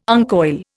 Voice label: "uncoil", Indian

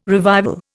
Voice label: "revival", Indian

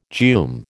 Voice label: "geum", American

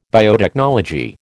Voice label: "biotechnology", American

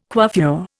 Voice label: "coiffure", Indian

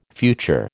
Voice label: "future", American

